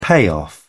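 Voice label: "payoff", British English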